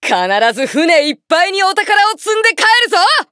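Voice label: start, Japanese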